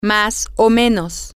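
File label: female, spanish; somewhat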